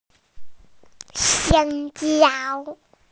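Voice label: "banana", Mandarin, hearing-impaired; xiang1jiao1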